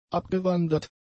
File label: "abgewandert", German; adjectives